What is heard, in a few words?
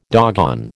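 "doggone", American English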